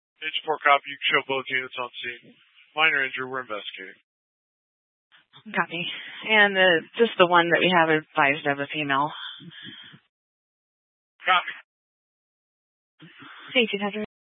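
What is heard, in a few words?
Fire Dispatch, scanner audio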